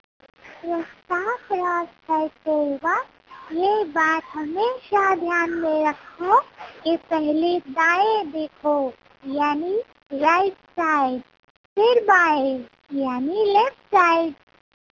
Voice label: Road Crossing in baby voice